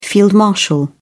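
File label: "field marshal", English, British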